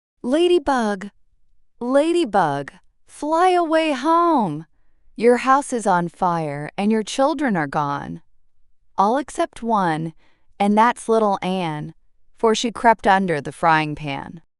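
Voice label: female US, classic rhymes; Ladybug Ladybug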